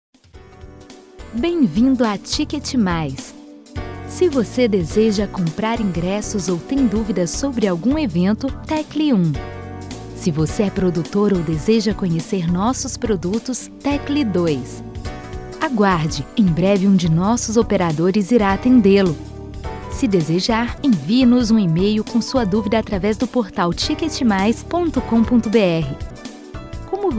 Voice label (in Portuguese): Espera Telefônica